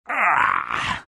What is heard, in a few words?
lion pain; dota